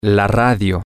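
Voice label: Spanish, male; the Radio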